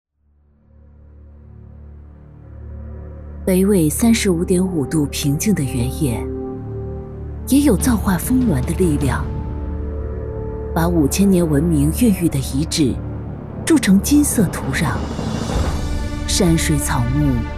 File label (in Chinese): 女 专题; 北纬35.5度